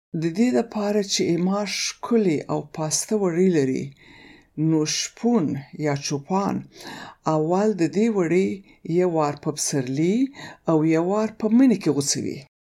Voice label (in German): paschtu